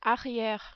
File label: "arriere", French